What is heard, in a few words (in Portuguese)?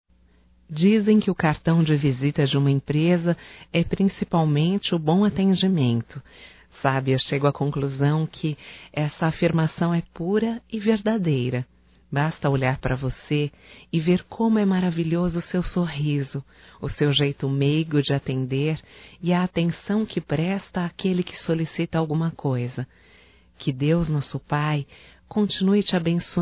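HOMENAGEM A RECEPCIONISTA (Feminino), Telemensagens; Homenagens; Telemensagens 2017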